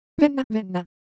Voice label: Japanese Sound, Ideo Phones

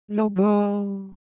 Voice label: Ewe, ideophones; Shape

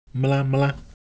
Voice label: Ewe, ideophones; Color Visual

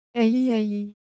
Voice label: Korean, Ideo Phones

